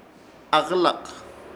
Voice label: "AKƗLAK", Ishir Chamacoco; companero del hombre

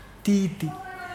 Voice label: "bosom", Mokilese

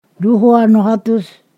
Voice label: "two hundred", Ponosakan

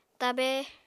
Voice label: "tabe", Apatani

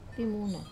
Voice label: "pimuna" pig, Wamesa

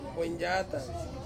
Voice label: "boindyatang", Ansus; nine

